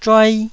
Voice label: "zhuai1", Taiwanese syllable